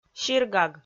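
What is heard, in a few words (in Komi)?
"сиргаг", Komi, female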